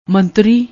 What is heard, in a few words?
"mantri", Punjabi